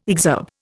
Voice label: "exurb", Indian